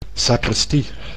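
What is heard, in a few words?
"sacristie", Dutch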